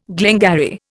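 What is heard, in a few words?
"gley", Indian; Dictionary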